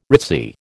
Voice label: "ritzy", American